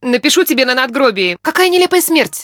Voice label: threat distant, woman; Stalker Anomaly